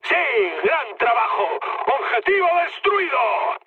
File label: bomb success, mood high, speech; War Thunder